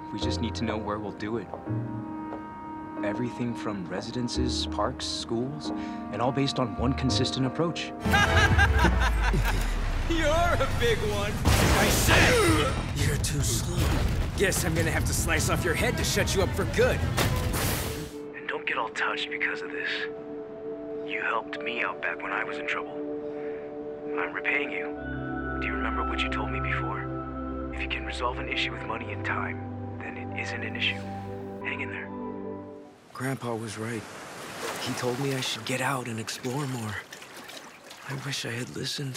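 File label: animation men, Voice Over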